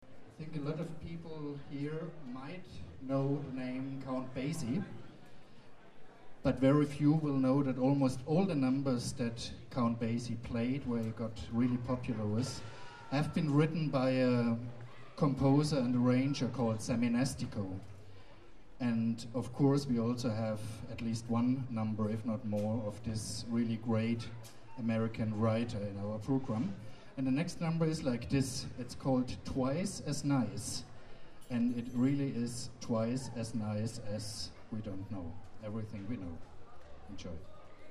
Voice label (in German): Ansage